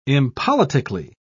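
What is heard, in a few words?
"impoliticly", British English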